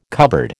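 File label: "cupboard", American English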